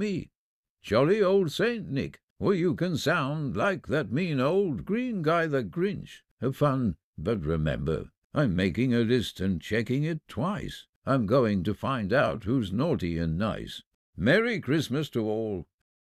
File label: Santas voice